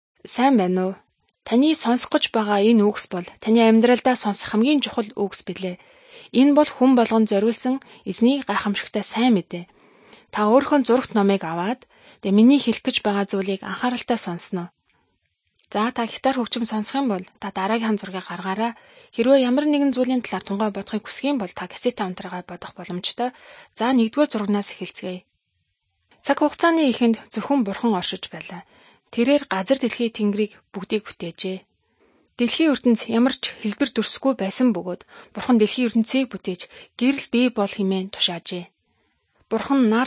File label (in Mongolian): good news mongolian